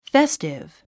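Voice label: "festive", English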